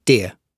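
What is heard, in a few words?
"dear", British English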